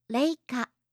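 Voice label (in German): "reika", japanische Mädchennamen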